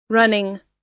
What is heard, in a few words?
"running", us